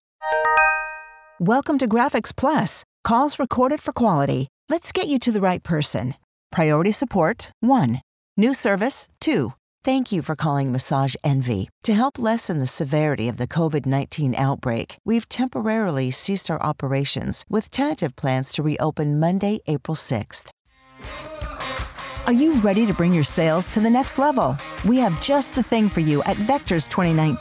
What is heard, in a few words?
IVR; AdvantageIVR